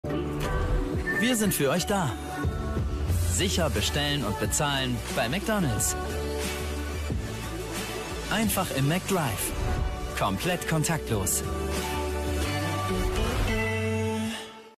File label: TV Spot; McDonalds McDrive